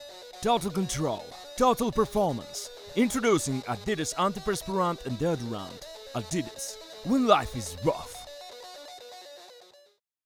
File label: Commercial English